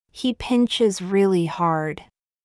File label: He pinches really hard., English